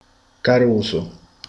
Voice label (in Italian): "ragazzo", catanese